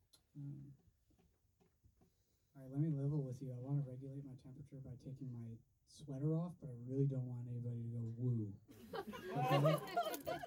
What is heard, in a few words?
Banter